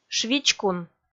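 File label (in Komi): "швичкун", Komi, female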